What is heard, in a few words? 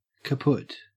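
"kaput", Australian English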